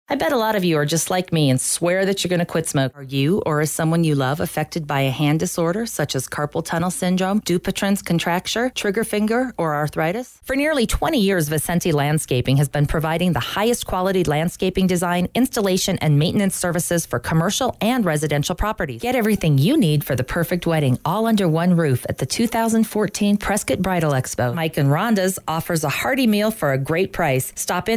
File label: Female, English, American; USA; Commercial Demo